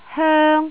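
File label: "hoeng1", Jyutping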